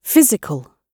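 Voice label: "physical", British English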